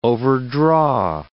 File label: "overdraw", English word